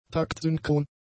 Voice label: "taktsynchron", German; adjectives